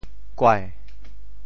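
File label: "kuai2", Teochew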